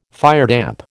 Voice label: "firedamp", American